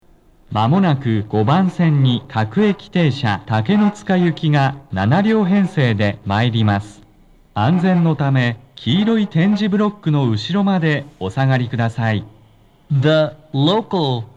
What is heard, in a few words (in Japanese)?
接近放送 【男声(東武鉄道)】, 放送は「竹ノ塚行」です。; 5番線 南栗橋方面; 日比谷線